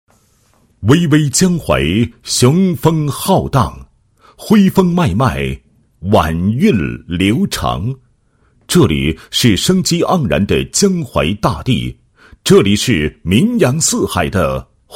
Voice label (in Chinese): 男 企业专题 解说词; 徽商银行解说词